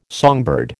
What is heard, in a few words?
"songbird", American